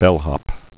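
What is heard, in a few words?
"bellhop", spoken word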